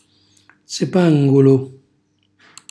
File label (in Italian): "zipangulu", calabrese; cocomero; cucinacalabrese; glossario